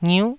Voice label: "ngiuh9", Taiwanese